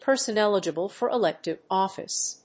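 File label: "person eligible for elective office", English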